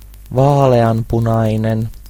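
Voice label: pink, Serbian; gcompris-data; colors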